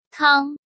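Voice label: "Kāng", Chinese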